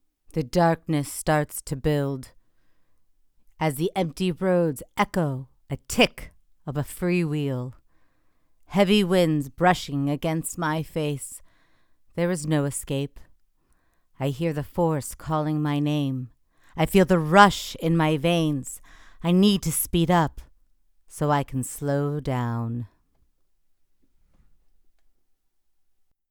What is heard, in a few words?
Documentry, demos